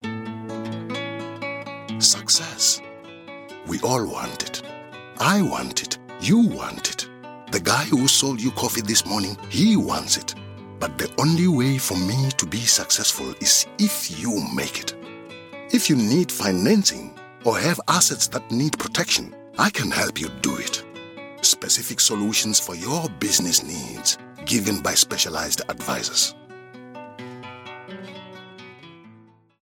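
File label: South African English, male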